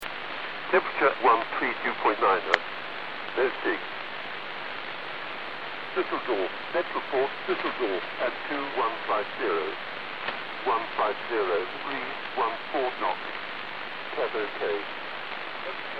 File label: USB Shannon Volmet